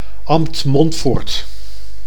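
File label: "Ambt Montford", Dutch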